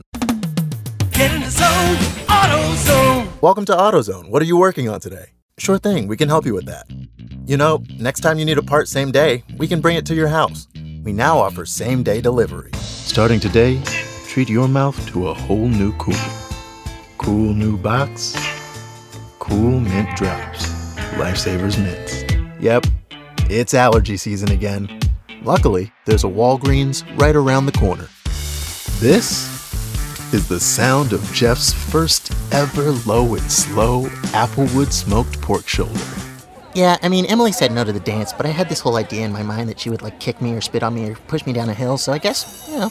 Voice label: Voiceover Commercial Men; Chicago